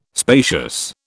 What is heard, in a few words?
"spacious", British